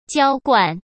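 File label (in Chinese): "娇惯", Mandarin Chinese; chinese-flashcards; hsk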